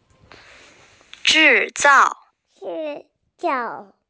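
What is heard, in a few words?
"zhì zào", Mandarin Chinese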